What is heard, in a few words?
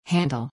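"handle", English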